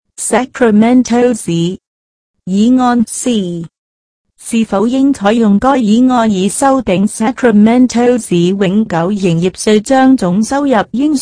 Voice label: Measure C Cantonese